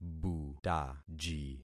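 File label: "butaji", concatenated syllables; Affixing experiment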